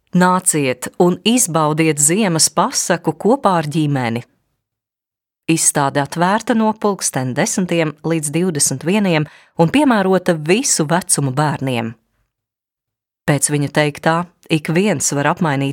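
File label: Latvian, female, announcements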